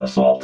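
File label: "assault", robot voice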